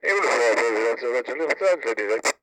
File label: Police Radio Chatter